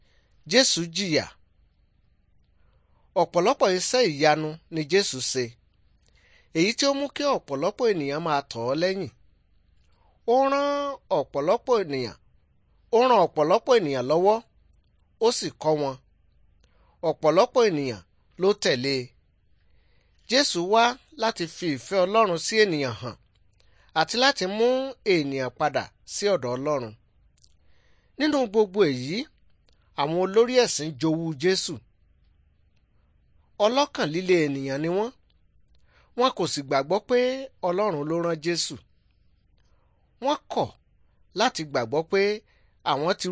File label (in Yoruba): good news yoruba